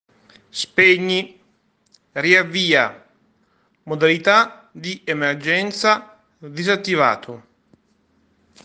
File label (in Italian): suono della voce interiore